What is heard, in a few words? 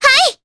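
Vox Aff Japanese; Mirianne; kingsraid; jp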